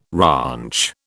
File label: "ranch", British